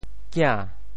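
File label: "kia~2", Teochew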